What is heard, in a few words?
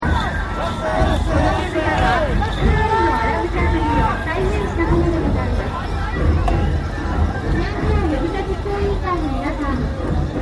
Festival Aomori Nebuta Festival Announcement, Nebuta Fesitval in Aomori Japan; Concerts